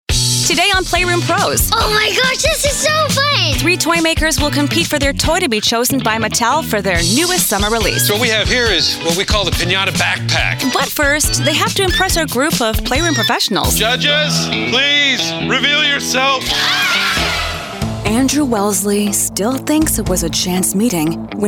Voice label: female english canadian neutral north american accent documentary